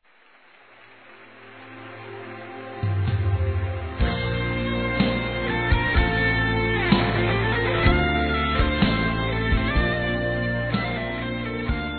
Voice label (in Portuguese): Distante Feminino, Telemensagens Dia das Mães; Telemensagens 2017